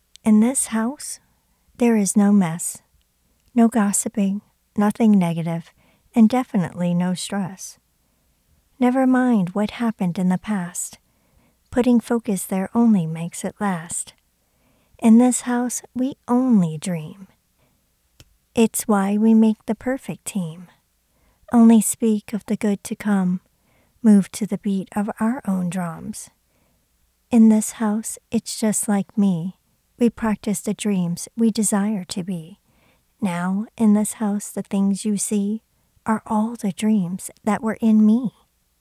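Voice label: English, US, female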